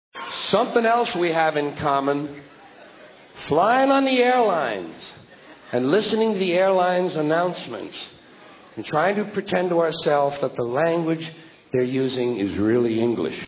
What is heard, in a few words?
airline announcement; george carlin